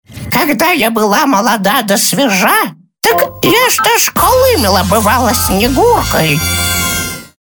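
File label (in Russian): babushka, grandmother voice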